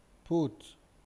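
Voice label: "put", Nigerian Pidgin, male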